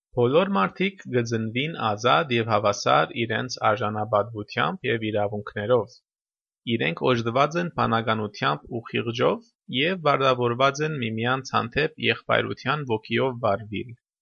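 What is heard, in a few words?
Armenian western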